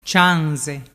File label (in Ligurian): "cianze", dialettu